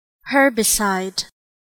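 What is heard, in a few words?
"herbicide", US English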